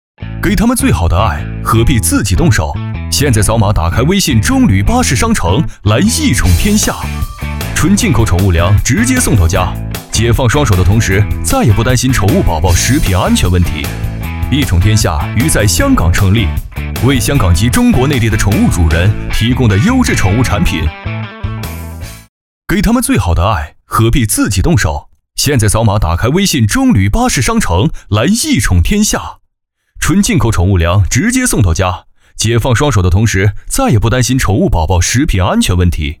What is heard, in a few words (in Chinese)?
男 产品 巴士商城; 中旅巴士商城